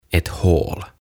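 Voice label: "Ett hål", "Reikä", Swedish, Finnish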